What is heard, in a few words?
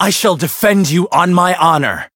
chant; shion